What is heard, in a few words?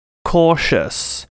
"cautious", English